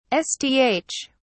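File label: "sth", British English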